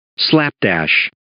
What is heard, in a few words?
"slapdash", English